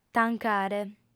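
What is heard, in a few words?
"tancare", lingua sarda, female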